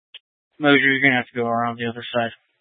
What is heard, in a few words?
Police Dispatch, scanner